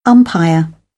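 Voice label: "umpire", British English; AmericanBritish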